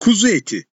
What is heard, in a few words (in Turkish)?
"Kuzu eti", Turkish; turkish phrases for tourists